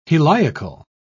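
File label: "heliacal", British English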